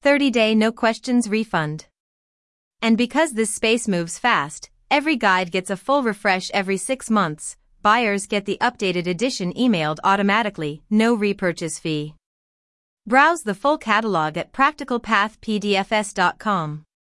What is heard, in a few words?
audiobook